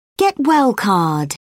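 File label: "get-well card", British English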